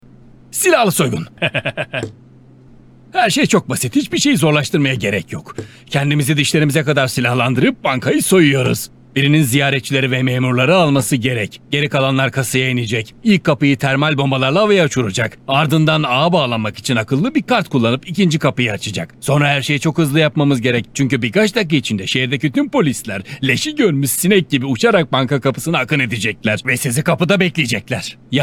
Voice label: turkish video games